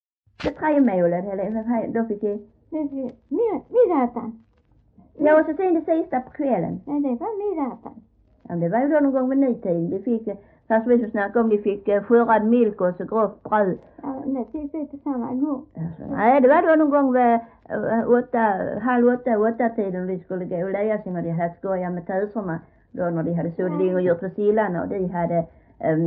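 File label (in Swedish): Äspö Skåne, dialekter; Folkminnen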